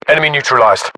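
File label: inform killfirm infantry, battlechatter, British; english; uk; localized english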